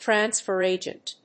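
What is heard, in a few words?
"Transfer Agent", English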